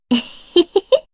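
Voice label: story, Japanese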